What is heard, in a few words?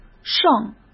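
"胜", Mandarin Chinese; hsk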